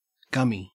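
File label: "gummy" Australian English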